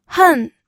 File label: "hen4", pinyin audio